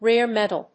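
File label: "rare metal", English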